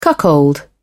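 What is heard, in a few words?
"cuckold", British English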